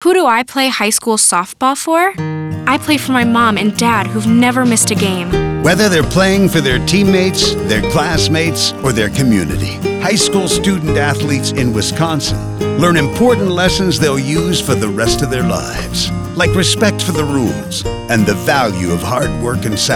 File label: audio spot